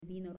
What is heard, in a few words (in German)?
"Vino" Spanisch